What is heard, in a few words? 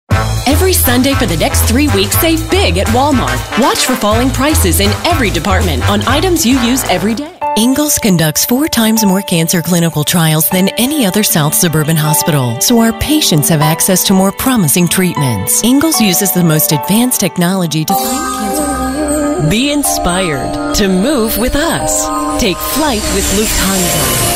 Commercial